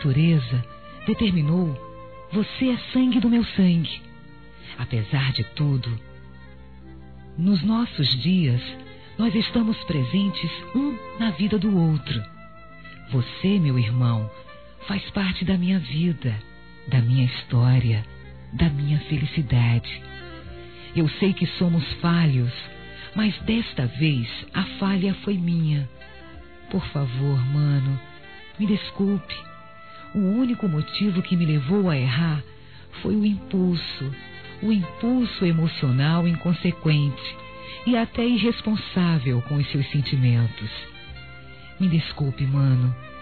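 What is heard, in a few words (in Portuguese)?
desculpa meu irmao, Telemensagens, female; Desculpas; Telemensagens 2017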